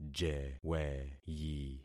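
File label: "jeweyi", concatenated syllables; Affixing experiment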